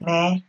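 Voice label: "meh", Nigerian Pidgin, female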